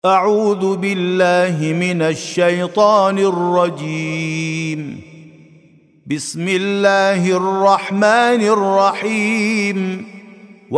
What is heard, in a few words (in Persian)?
ترتیل سوره مبارکه عادیات